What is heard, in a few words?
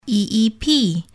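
eep spell, English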